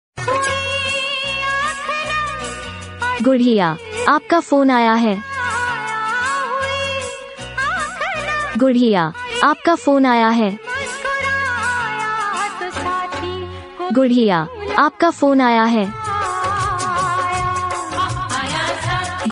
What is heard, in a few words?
name ringtone hindi ringtone love romantic ringtone